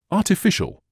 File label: "artificial", medical english uk